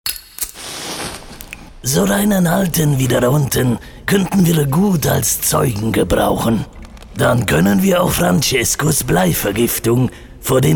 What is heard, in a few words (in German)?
Mafioso